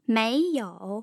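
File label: "meiyou", Mandarin Chinese, tone pairs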